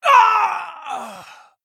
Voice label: Female Tauren Death